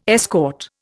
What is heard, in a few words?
"escort", Indian